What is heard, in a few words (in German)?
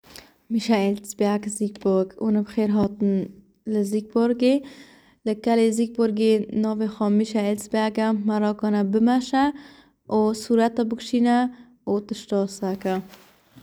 kurdisch; Michelsberg